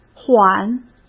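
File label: "缓", Mandarin Chinese; hsk; chinese-flashcards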